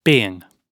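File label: "being", British English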